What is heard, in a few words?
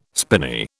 "spinney", British